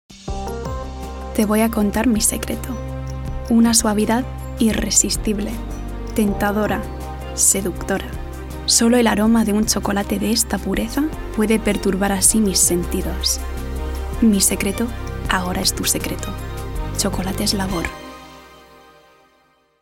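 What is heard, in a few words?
Spanish Commercial; GoLocalise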